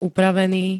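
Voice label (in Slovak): "upravený", Slovak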